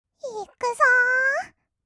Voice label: "いくぞ", kawaii voice; 1200 kawaii voice!!; 色々なワンショット

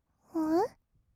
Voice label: 「おう」, kawaii voice; 1200 kawaii voice!!; 色々なワンショット

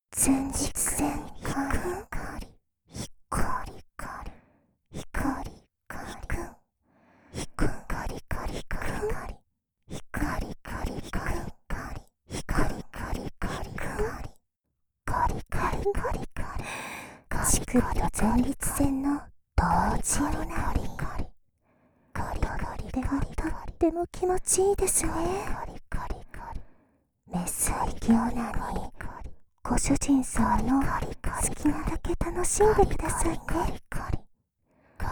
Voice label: ひたすら囁きで乳首＆前立腺オナニーサポート; メスイキループ

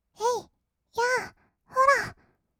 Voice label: えい やー ほら, kawaii voice; ASMR; 色々なワンショット

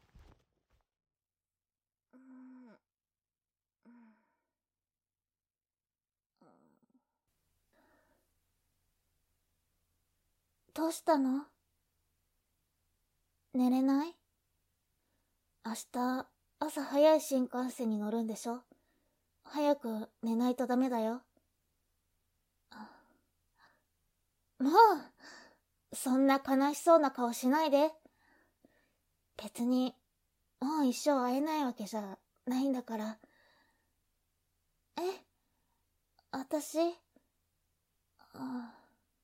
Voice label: 標準語左右反転

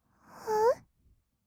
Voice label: おう, kawaii voice; ASMR; 色々なワンショット

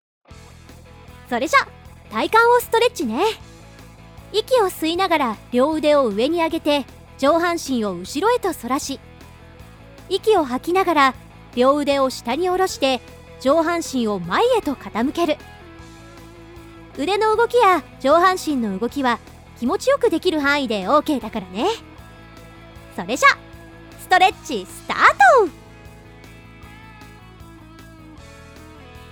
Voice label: 雑ストレッチ ショートワーク体幹のみ, ASMR

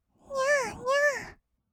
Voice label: にゃーにゃー, kawaii voice; ASMR; 色々なワンショット

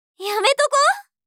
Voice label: やめとこ？, 相づち・反応系【ネガティブ】

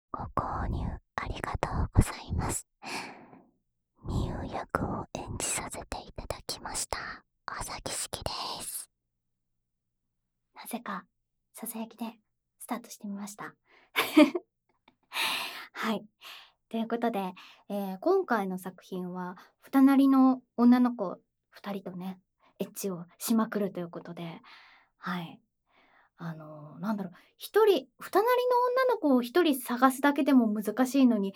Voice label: フリートーク; ASMR

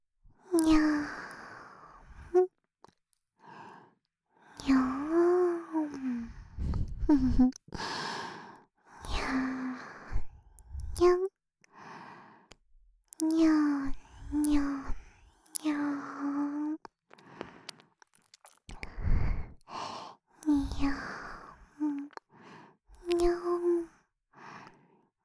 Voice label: にゃぁにゃぁ♪, ASMR, 左耳

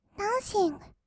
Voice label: ダンシング, kawaii voice; 音ゲー

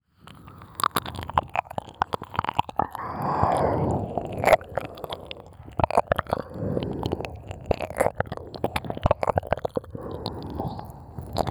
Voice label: まったりMIX, ASMR

